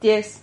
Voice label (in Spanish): "ties", Silabas